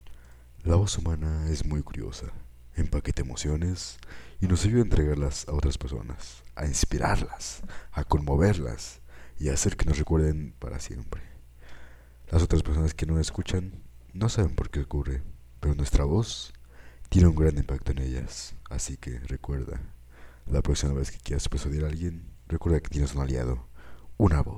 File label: Commercials